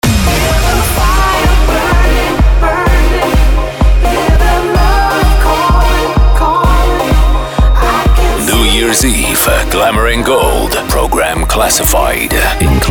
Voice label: party commercial; versuz nye